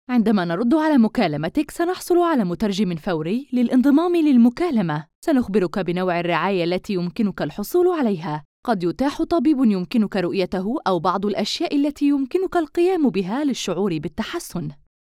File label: arabic ivr; native Arabic speaker